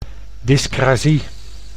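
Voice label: "dyscrasie", Dutch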